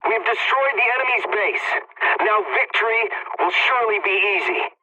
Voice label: pilot base destroyed enemy, english; War Thunder

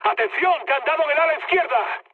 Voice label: left wing, mood high, speech; War Thunder

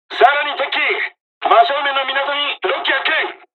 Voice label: dialog, dialogs japanese; War Thunder

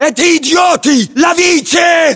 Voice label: inform attack grenade, battlechatter, ru; localized english

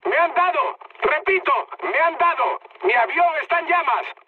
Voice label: on fire, mood high, speech; War Thunder

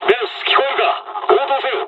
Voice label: dialogs japanese; War Thunder

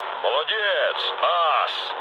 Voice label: trick, speech ru

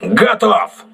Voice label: voice message enemy destroyed, crew ships russian

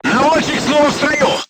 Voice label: voice message gunlayer healed, crew tanks russian

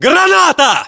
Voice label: inform attack grenade, battlechatter, ru; localized english

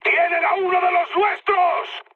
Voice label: aircraft destroyed mood high; War Thunder

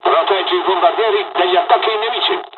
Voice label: cover, dialogs italian; midway jpn; War Thunder

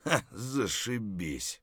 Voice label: mutter; Escape from Tarkov

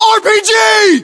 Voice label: threat rpg, US

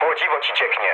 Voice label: damaged water mood low, speech pol; War Thunder

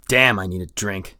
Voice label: dehydrated; Escape from Tarkov; usec2

